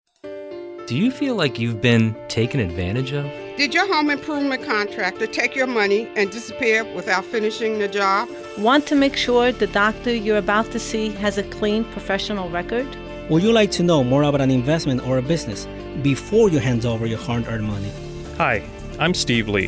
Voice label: Consumer Affairs Radio Commercial; OAG NJ